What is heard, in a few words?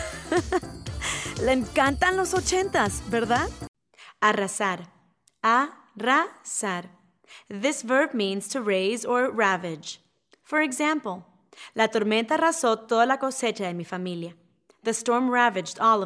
VO Spa Eng